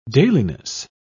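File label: "dailiness", British English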